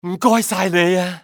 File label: thank, male; XBOX; civ